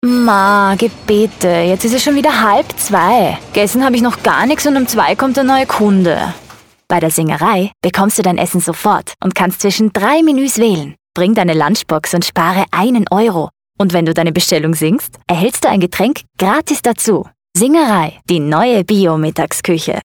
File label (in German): Sprechsample Spot; Singerei